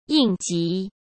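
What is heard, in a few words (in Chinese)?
"应急" Mandarin Chinese; chinese-flashcards; hsk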